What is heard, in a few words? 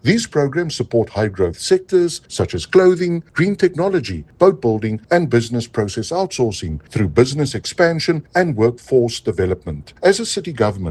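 English; Partnerships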